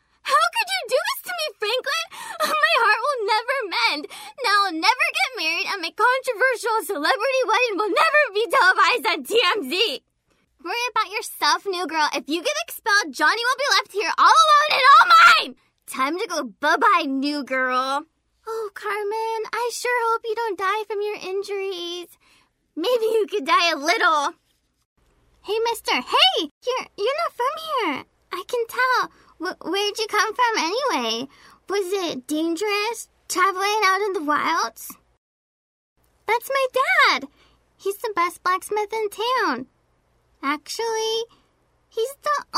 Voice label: Video Game Animation Voice Over